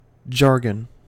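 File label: "jargon", US English; pronunciations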